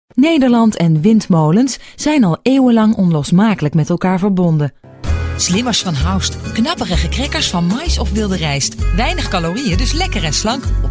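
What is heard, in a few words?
Dutch, female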